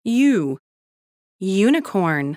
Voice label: babys sound audio UNICORN